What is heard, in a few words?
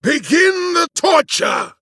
Male Human Attack